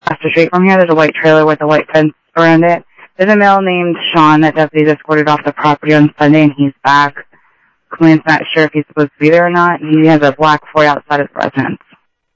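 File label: Sheriff East County, scanner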